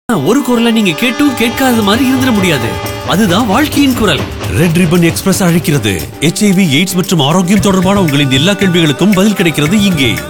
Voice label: Radio Tamil